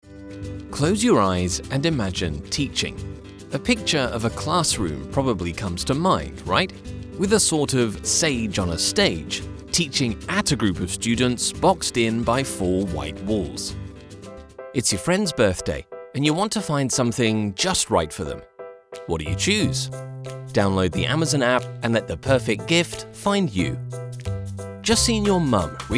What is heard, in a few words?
corporate, voiceover